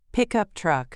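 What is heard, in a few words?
"pickup truck", English; transportation vocabulary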